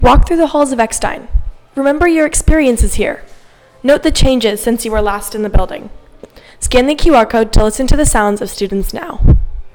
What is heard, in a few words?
Introduction Narration